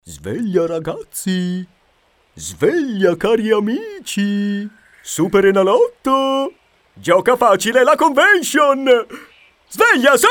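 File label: Italian, male, character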